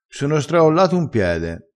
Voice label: "straolla", vocabolario dialettale

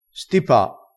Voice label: "stipa", vocabolario dialettale crespinese

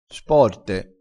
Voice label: "sporte", crespinese

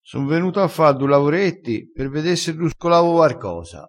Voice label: "ruscola", crespinese

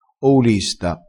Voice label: "oulista", crespinese

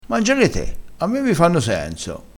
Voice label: "senso", vocabolario dialettale

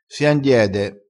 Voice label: "si andiede", crespinese

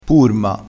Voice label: "purma", crespinese, vocabolario dialettale

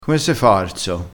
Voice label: "farso", vocabolario dialettale

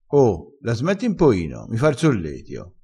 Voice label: "solletio", crespinese

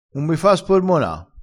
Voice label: "spormonassi", crespinese

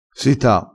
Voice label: "sita", vocabolario dialettale

